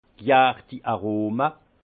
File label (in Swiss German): "jartiarome", Alsace; vin; arômes fermentaires